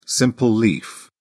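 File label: "simple leaf", British English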